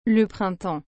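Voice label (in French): "le printemps", French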